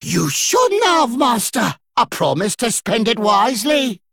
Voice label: Male Dredger; After Shop Upgrade

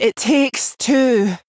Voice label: Female Dwarf Death

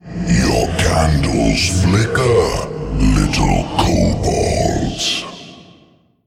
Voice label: Male Darkness

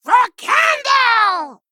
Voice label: Male Kobold Attack

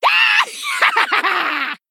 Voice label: Female Troll Attack

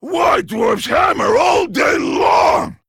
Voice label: Male Trogg Boss Idle; Morloch

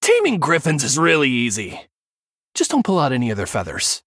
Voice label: Male Human Flavor

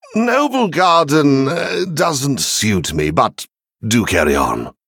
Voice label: Male Venthyr HAPPY NOBLEGARDEN

